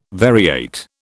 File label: "variate", British